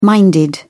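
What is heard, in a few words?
"minded", British English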